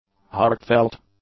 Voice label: "heartfelt", English